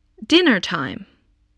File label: "dinnertime", english spelling